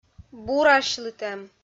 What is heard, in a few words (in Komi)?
"бурасьлытӧм" kpv f